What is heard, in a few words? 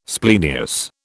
"splenius", British